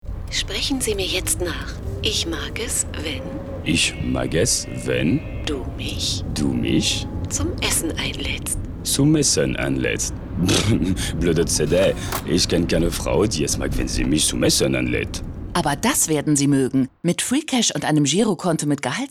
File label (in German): citibank radio werbung